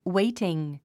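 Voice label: "waiting", English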